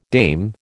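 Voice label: "dame", American English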